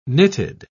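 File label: "nitid", British English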